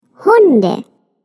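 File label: "dogs", German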